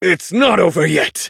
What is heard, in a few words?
hit, en; Cookie Run